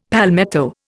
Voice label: "palmetto", Indian; Dictionary

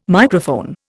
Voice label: "Microphone", Indian

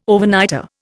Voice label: "Overnighter", Indian

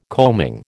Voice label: "combing", American

